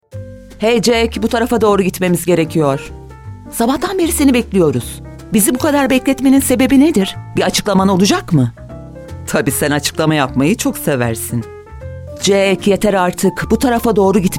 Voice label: reklam dublaj

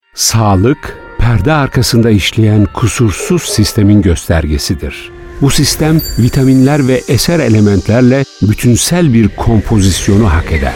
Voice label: reklam tanitici; lavita

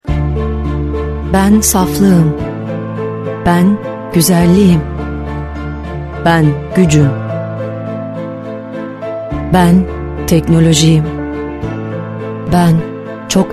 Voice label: reklam cazibeli

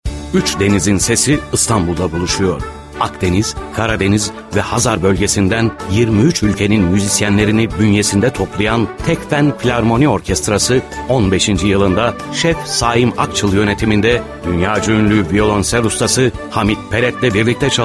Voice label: emotion gunluk

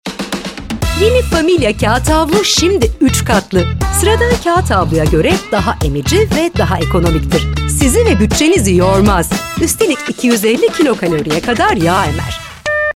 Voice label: reklam enerjik dinamik neseli; familia

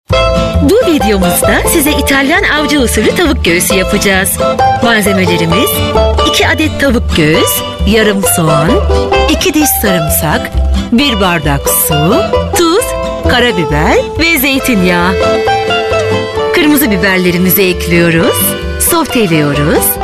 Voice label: anlatici; tavukgogusu

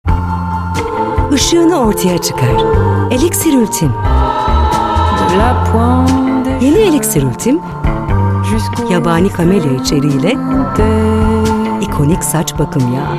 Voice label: reklam karizmatik şuh cazibeli; kerastase

